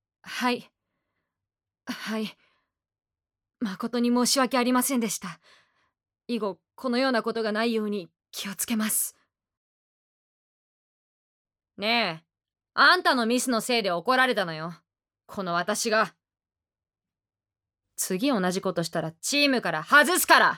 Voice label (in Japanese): アニメ